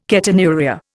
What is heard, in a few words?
"ketonuria", Indian